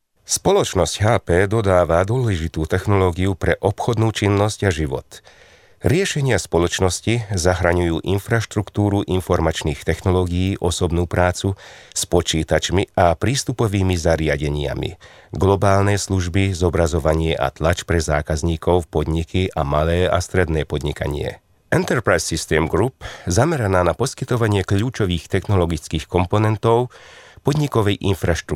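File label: Slovak male voice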